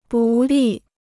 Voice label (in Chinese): "不利", Chinese